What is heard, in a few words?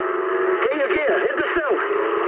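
English bailout mood med; War Thunder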